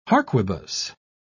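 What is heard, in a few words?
"harquebus", British English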